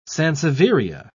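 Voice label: "sansevieria", British English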